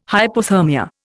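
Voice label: "hypothermia", Indian English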